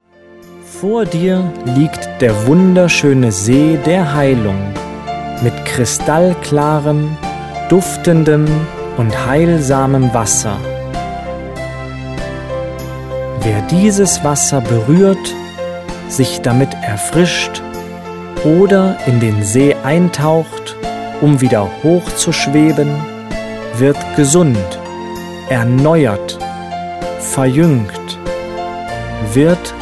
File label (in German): Heilmeditation